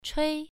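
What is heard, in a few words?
"chui" Mandarin, first tone, pronunciation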